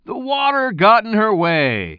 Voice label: commentator